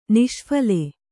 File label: "ನಿಷ್ಫಲ", Kannada